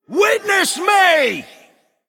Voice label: Male Human Attack

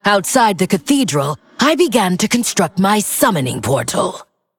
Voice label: Female Undead

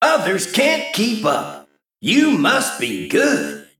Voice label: Male Mech Ahead

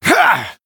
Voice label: Male Night Elf Attack; Dathril

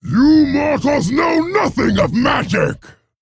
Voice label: Male Dragon

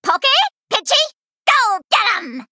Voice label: Female Gnome Play

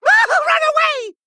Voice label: Male Kobold Attack

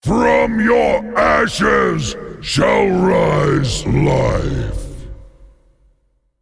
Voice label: Male Ancient Play

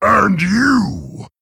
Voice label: Male Orc MIRROR START

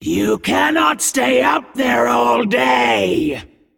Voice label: Female Demon

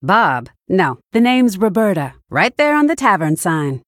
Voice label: Female Human Flavor; Bacon Shop Bob

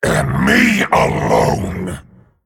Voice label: Male Dragon

